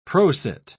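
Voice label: "prosit", British English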